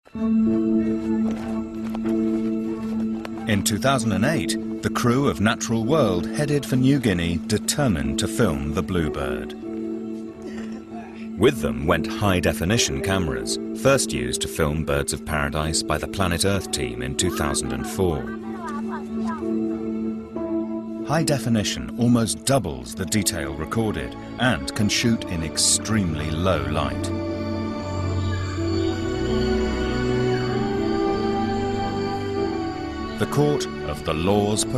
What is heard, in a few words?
Narration; Birds of Paradise